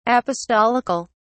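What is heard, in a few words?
"apostolical", British English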